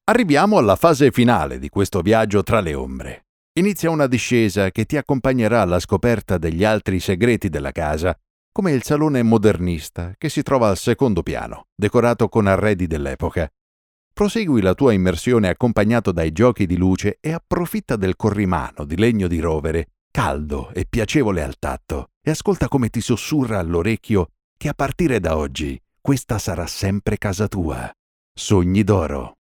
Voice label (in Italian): audioguida